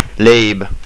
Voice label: bread, estonian

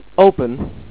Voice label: OPEN, dutch

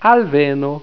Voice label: "Alveno", Esperanto; Arrival

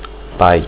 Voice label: father, portuguese

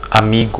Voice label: friend, portuguese

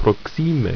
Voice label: "proxime", ido

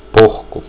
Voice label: pork, portuguese

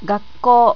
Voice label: "gakkou", japanese

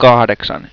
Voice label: "kahdeksan", Finnish

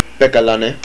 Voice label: "English", hawaiian

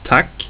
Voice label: "tack", Swedish

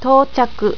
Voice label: "tochaku", japanese

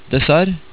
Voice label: "dessert", Norwegian

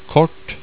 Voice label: "kort", Norwegian

